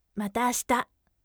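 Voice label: voice mata ashita firm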